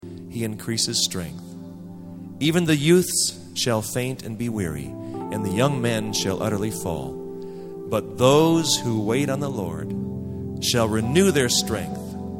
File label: Narration